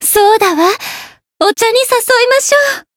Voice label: Voice win jp; ewella

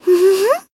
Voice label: attack, jp; soira

